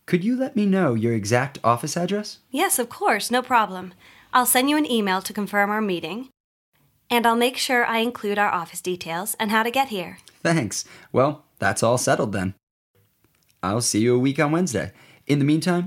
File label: business arrangements, US English; upper intermediate level